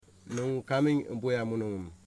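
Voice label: you are from which village, Wamut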